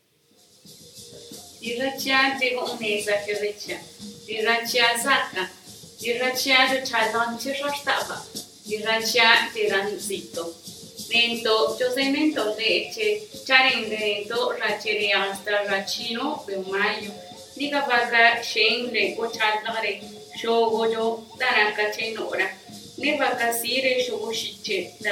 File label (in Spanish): spot zapoteco; san juan tabaa